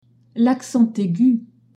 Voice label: "aigu", French; l'accent aigu (m); the acute accent; accents

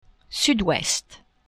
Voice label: "sud-ouest", French; geographie

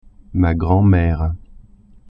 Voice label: "grand-mère", French

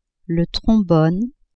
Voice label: "trombone", French; instruments

